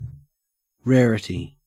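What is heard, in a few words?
"rarity", Australian English; pronunciations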